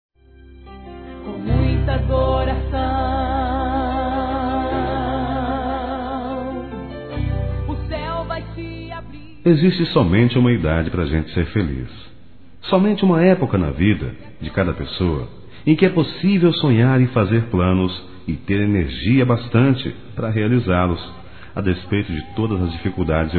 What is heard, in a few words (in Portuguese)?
Aniversário Irmã Masculino; ANIV. Evangelico